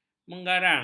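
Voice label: "menggarang", Malay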